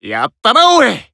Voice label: Emo Praise